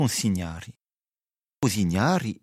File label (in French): "cignali", Rocca (sud)